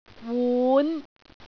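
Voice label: "wun5", Cantonese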